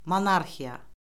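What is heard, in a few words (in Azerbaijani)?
"monarxiya", Azerbaijani; Orfoqrafiya Lüğəti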